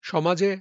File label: Male